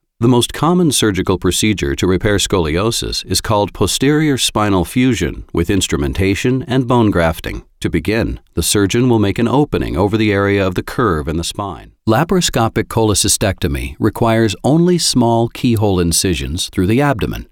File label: eLearning Medical